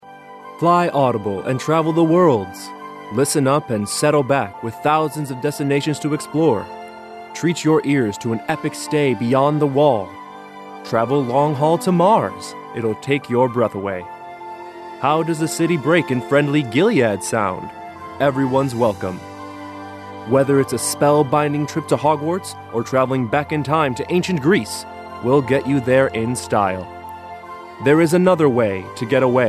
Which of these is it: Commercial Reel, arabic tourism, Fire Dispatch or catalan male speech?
Commercial Reel